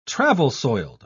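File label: "travel-soiled", British English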